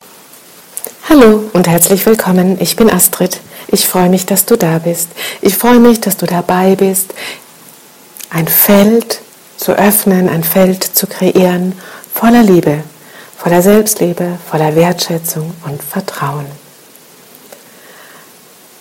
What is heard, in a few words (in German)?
Begrüßung lauter